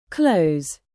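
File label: "live", verb, British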